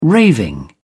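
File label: "raving", British English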